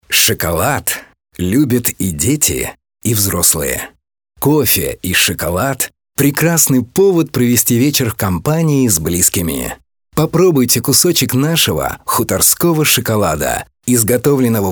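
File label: Russian male example Sweet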